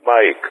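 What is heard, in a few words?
"Mike", spoken; Ka-50; Alphabet; Mike-continue; Speech; DCS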